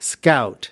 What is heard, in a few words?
"scout", us